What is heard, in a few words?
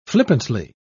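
"flippantly", British English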